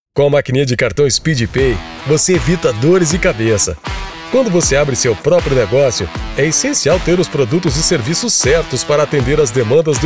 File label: portuguese brazilian announcements; Portugiesisch (Brasilianisch)